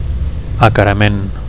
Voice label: "acarament", Catalan; (m) confrontation